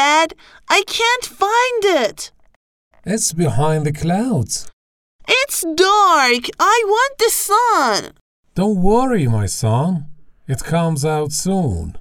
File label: conversation